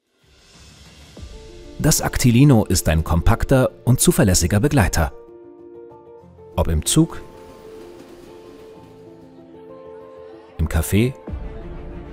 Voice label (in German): imagefilm; actilino